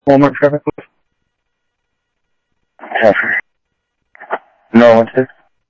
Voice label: Sheriff, scanner audio; SoutheastCenMesa; MCSO - EAST Sheriff-EastCnty